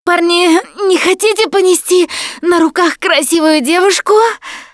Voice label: going to die, russian; Producer